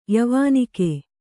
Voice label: "ಯವಾನಿಕೆ", Kannada